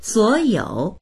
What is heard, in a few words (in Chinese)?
"suo3you3", voices